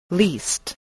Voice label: "least", English